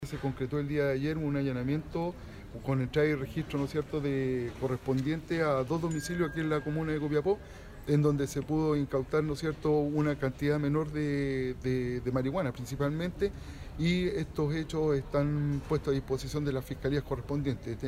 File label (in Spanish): Cuña; Teniente Coronel de Carabineros